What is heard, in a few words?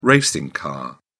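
"racing car", British English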